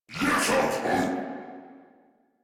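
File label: Reload, English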